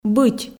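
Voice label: "быть", Komi, female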